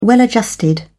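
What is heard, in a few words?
"well-adjusted", British English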